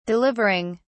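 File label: "delivering", British English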